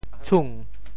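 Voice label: "tshung2", Teochew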